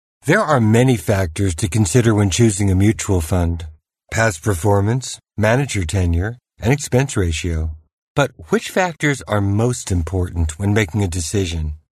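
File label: US English, male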